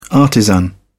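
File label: "artisan", English, British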